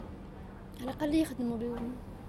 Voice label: woman in cafe